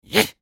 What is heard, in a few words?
effort; Jester